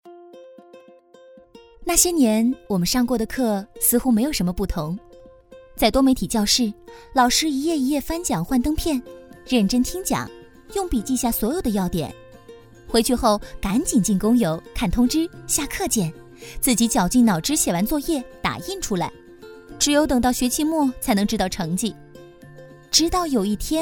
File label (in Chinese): 女 国 大音 自述 女大学生; 人民大学